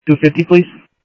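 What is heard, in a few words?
Sheriff East County, scanner audio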